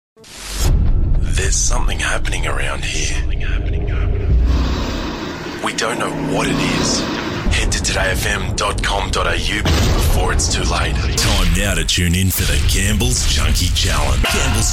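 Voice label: English Australian, male, commercial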